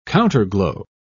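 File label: "counterglow", British English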